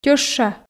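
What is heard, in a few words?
"тьӧща", Komi, female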